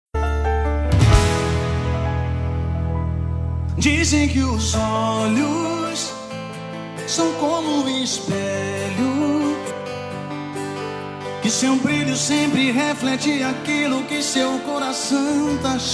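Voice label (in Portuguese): CONQUISTA male, Telemensagem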